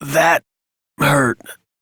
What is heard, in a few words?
Low Health Operator Voice, en; Operator Mission